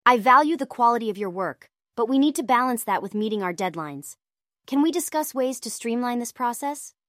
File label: synthesized audio